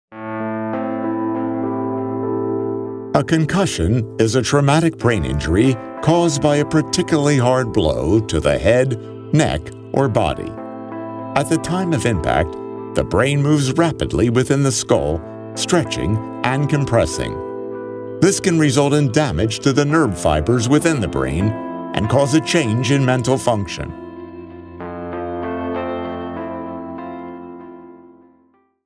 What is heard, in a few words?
Educational Concussion